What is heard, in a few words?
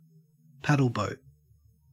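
"paddleboat", Australian English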